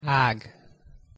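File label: "ag" pronunciation Hungarian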